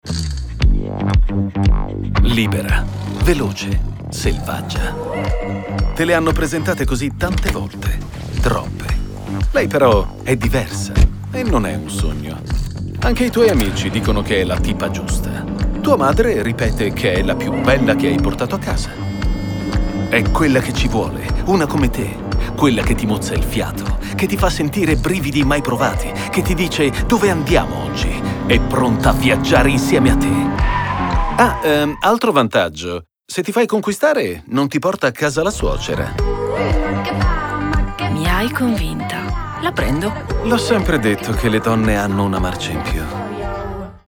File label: Powerful Hard